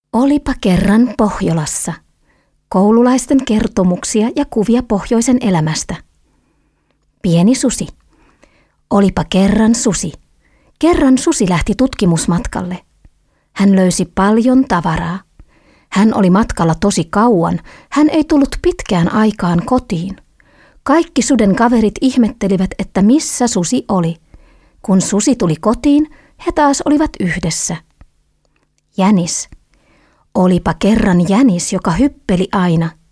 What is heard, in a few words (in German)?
finnisch erzaehlung; sprecher